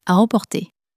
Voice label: "a emporter", french; flashcards

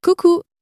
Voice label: "coucou", French

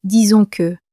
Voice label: "disons que", French

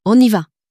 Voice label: "on y va", french; flashcards

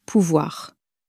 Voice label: "pouvoir", french; flashcards

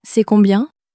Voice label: "c est combien", french

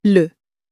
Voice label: "le", French

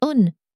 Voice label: "on", French

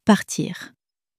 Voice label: "partir", french; flashcards

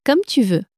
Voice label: "comme tu veux", common french words

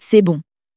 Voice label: "c est bon", french; flashcards

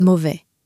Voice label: "mauvais", French

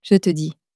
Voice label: "je te dis", French

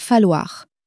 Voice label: "falloir", French

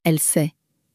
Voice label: "elle sait", French

